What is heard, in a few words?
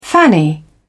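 "fanny", British English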